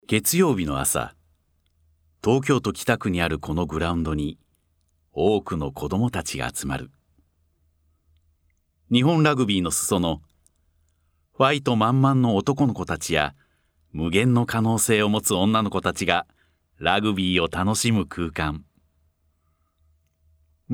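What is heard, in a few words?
narration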